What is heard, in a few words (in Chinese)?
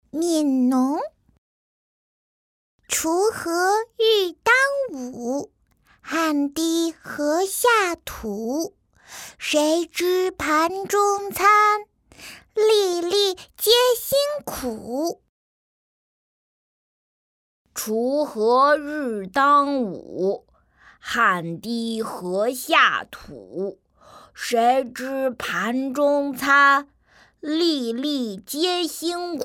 女 男童女童